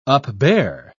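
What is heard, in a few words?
"upbear", English, British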